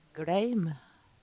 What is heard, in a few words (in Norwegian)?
"gleim", Numedalsmål